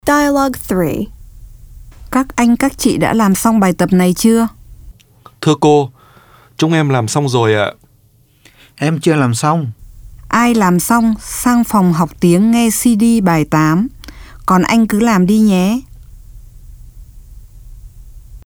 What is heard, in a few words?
Dialog